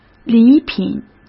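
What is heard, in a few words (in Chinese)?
"礼品", Mandarin Chinese; hsk; chinese-flashcards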